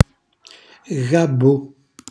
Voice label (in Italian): "gabbu", Calabrese; meraviglia; cucina calabrese; Glossario